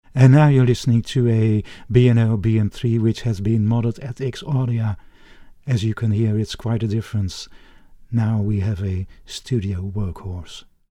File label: B&O BM3 sound UK